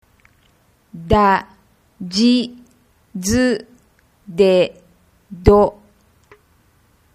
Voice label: dadidudedo, Japanese